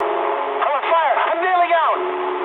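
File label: English on fire mood med; speech; War Thunder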